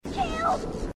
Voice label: killed, grunts